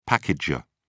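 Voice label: "packager", British English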